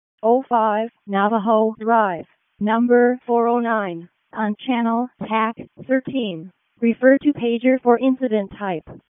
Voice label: EMS ALERT; wake; p25